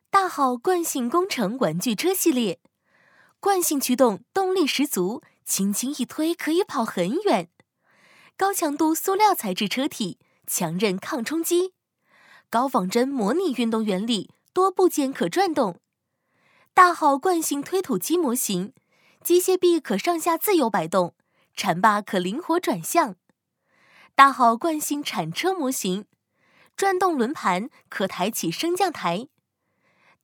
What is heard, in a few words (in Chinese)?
女 淘宝视频 活泼介绍 玩具车